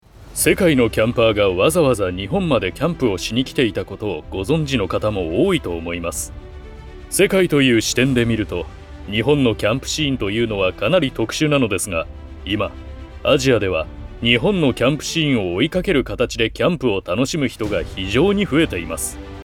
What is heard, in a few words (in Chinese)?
日语 男声 老师; 日语男声号老师